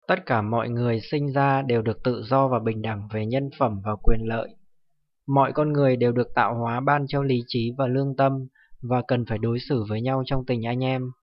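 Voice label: udhr vi-n, Northern Vietnamese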